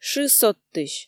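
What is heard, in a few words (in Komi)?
"шы содтысь", Komi, female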